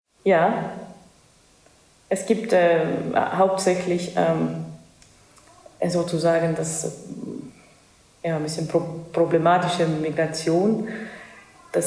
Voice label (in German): estnisch